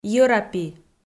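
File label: "йӧрапи", Komi, female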